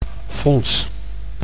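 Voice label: "fonds", Dutch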